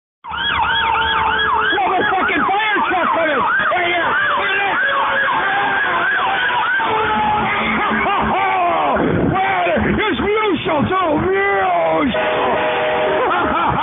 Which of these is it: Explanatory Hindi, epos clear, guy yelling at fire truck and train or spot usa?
guy yelling at fire truck and train